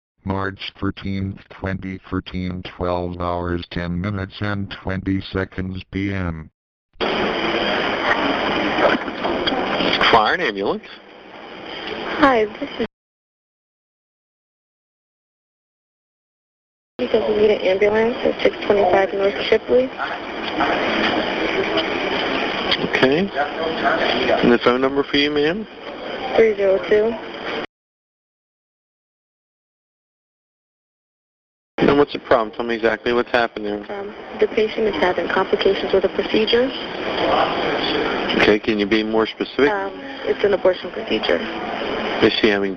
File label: 911 Call; Wilmington